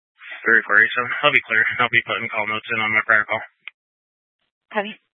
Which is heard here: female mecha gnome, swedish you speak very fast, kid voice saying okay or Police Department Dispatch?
Police Department Dispatch